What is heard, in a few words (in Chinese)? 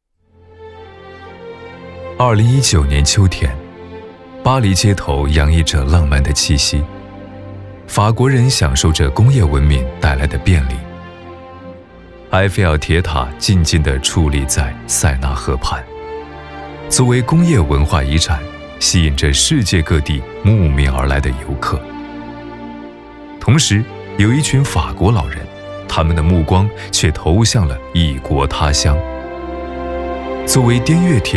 男 专题 自然 讲述 纪录片; 滇越铁路